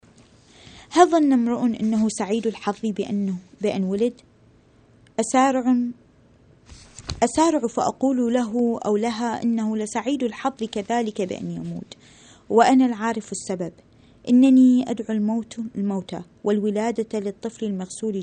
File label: arabic